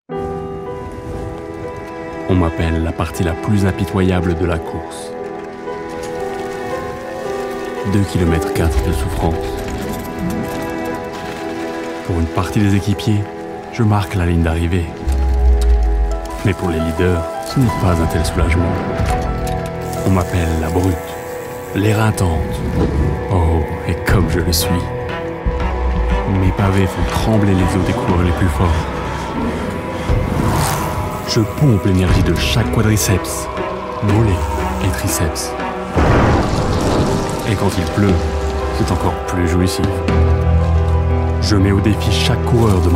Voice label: French Voice Over emotionally warm

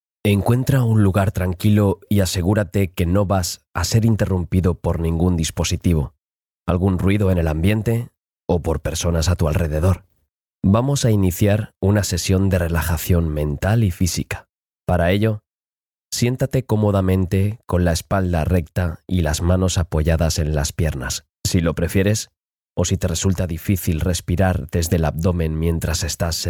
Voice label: Spanish voice over calm sincere

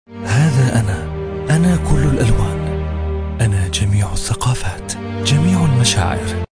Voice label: Arabic Voiceover

